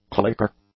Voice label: "clicker", English; Substantiv; nouns